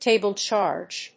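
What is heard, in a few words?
"table charge", English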